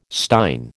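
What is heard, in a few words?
"stein", American English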